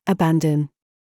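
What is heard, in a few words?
TRAP, words, British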